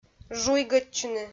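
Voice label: "жуйгӧдчыны", Komi, female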